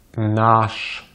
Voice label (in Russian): "наш", Russian; easypronunciation